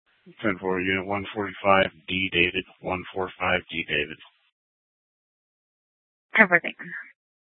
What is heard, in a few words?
PD Dispatch